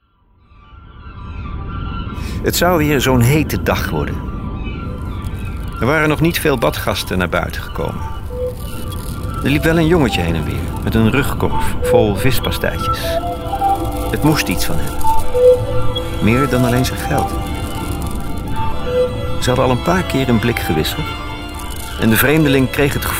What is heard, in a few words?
NL Adult Promo